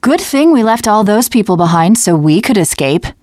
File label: teen girl